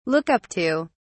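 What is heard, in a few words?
"look up to", British English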